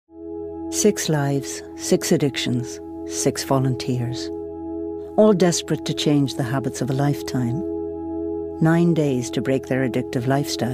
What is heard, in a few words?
Narrative; Addiction